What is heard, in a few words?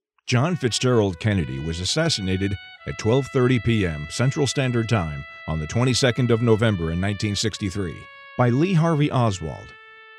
english american audiobooks